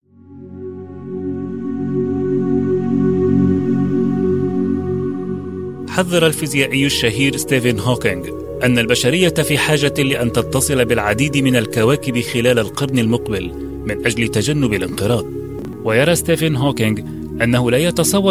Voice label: documentary, Arabic